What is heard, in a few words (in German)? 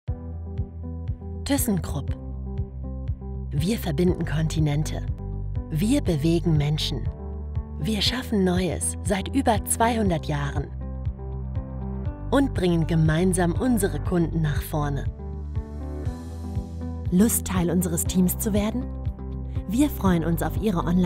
Erklärfilm; Thyssen Krupp